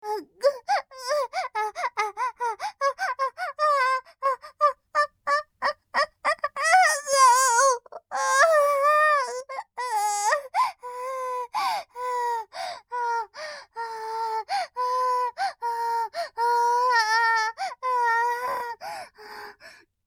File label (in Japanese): 絶頂まで, ASMR; イクたびにわけて